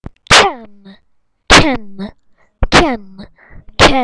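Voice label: "can", spoken word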